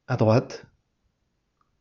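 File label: "a drwat", French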